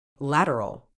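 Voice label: "lateral", British English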